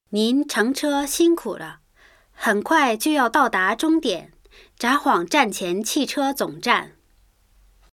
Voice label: chinese